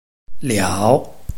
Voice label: "liao3", Chinese